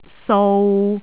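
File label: "sou3", Cantonese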